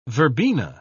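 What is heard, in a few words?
"verbena", British English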